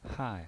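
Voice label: "hai", Mandarin Chinese, fourth tone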